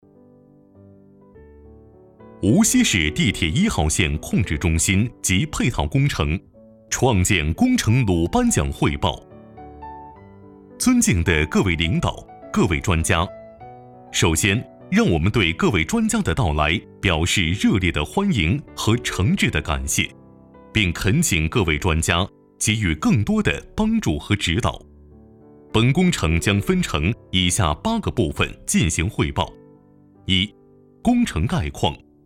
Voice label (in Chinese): 男国 多媒体 项目规划 工程介绍